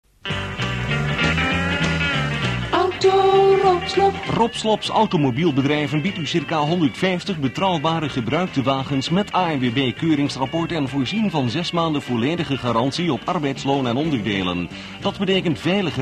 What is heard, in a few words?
commercial